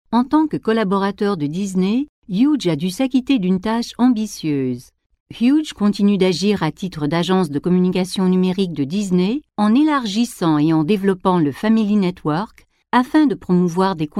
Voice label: EuroFrench